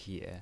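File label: "pie" third tone, pinyin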